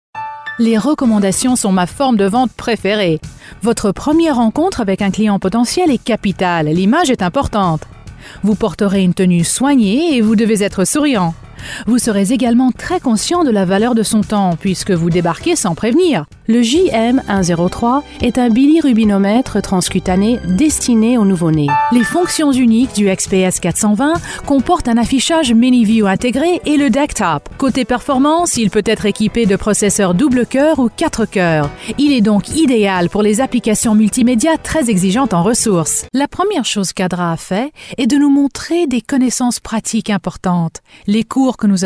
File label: French Narration